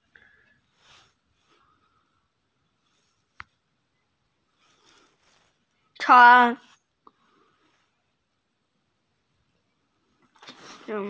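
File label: "ceng2", Mandarin Chinese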